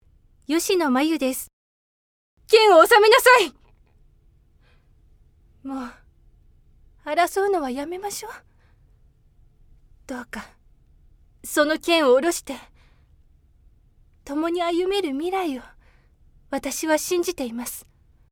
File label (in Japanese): 清楚系アイドル; 北海道